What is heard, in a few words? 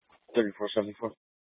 Police Dispatch, scanner; Police 1 Dispatch